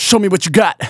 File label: Phrase Male Rap; Vengeance Samples